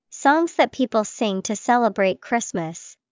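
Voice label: Christmas carols, English vocabulary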